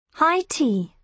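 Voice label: "high tea", British English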